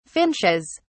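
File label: "finches", British English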